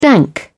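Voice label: "dank", British English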